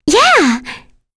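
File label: Vox Happy, English; kingsraid; voices heroes en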